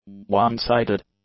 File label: "one-sided", English; adjectives